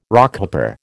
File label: "Rockhopper", American